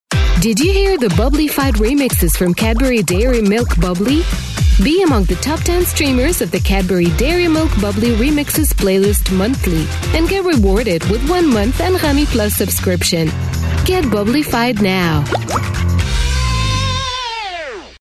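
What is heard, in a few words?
Arabic, female, English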